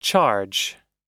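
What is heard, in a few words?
"charge", US English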